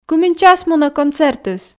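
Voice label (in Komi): кымын час мунӧ концертыс, Komi, female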